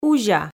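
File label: "кузя", Komi, female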